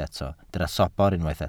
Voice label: "sobr", Welsh

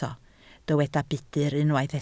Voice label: "budr", Welsh

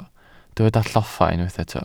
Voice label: "lloffa", Welsh

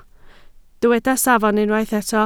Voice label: "safon", Welsh

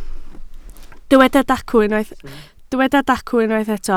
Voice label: "dacw", Welsh

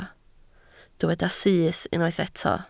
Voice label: "syth", Welsh

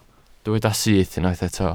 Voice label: "syth", Welsh

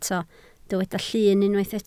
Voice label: "llun", Welsh

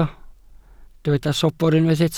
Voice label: "sobr", Welsh

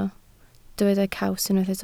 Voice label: "caws", Welsh

